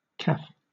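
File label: "caff", British English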